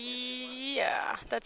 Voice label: diphone v iiyeah, schwa